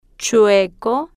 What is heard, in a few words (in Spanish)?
"chue-co", Spanish